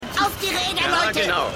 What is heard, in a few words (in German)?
Aeltere Frau, Stimmproben; Seinfeld